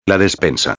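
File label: "la despensa", Spanish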